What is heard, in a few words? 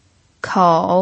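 "kou" third tone, pinyin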